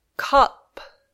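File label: "cup", English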